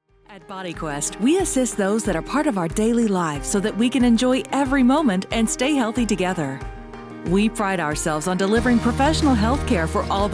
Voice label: english american medical narration

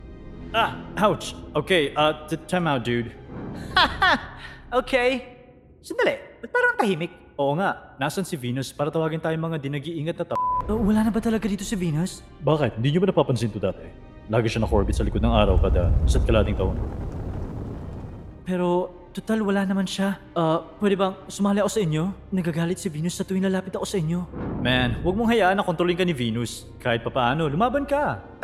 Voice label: tagalog animation

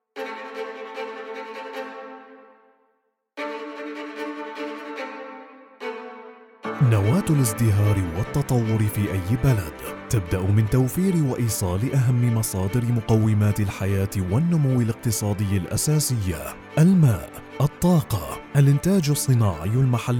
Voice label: arabic corporate videos

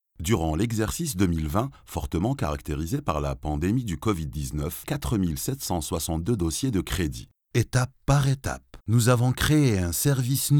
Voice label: french commercial; born in Paris; Algeria; professional native bilingual , arabic & European french

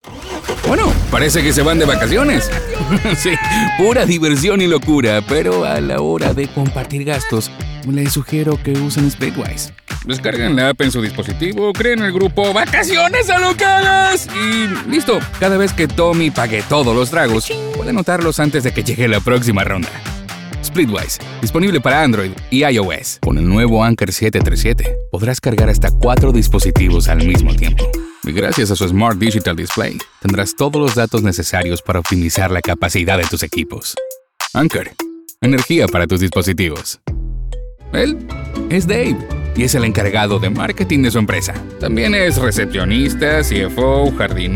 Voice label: spanish latin american others

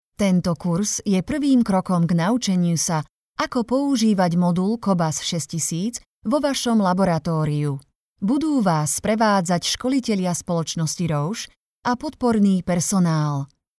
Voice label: slovak e-learning

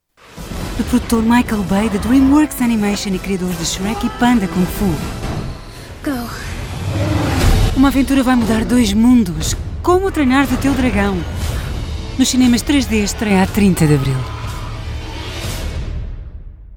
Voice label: portuguese movie trailers

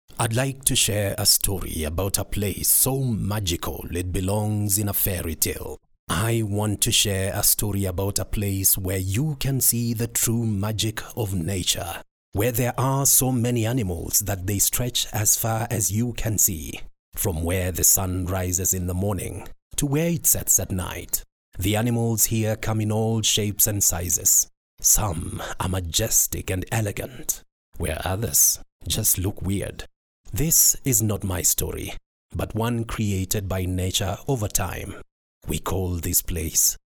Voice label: english african narration

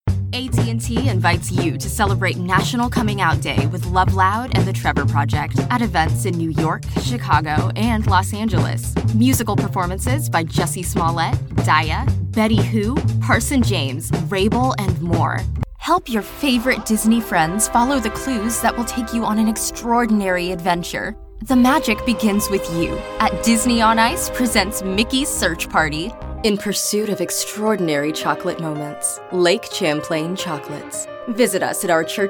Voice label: english american tv shows

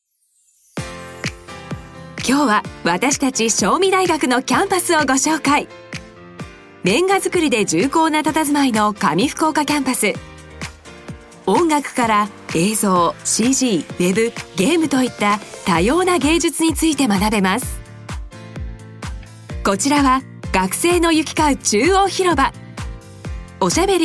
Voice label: japanese movie trailers

